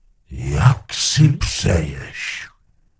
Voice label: Gnome Male Goodbye; wowpreklad; czwow; GnomeVocalMale